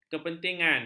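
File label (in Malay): "kepentingan", Malay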